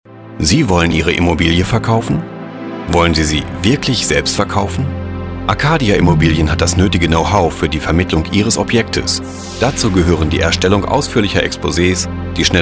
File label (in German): Immobilien Radiowerbung